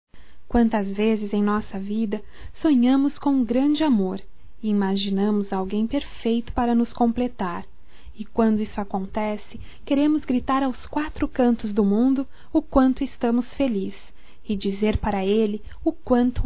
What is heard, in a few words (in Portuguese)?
EU TE AMO (F); Declaração de amor; fem; Telemensagens 2017